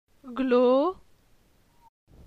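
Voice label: "Glo", French; phonetique; la syllabe o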